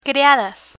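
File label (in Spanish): "criadas", Spanish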